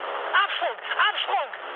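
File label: German bailout mood med, speech; War Thunder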